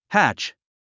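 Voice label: "hatch", 英単語 発音; hǽtʃ ハチ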